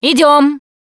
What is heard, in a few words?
Move On, Survivor Voice; russian; Producer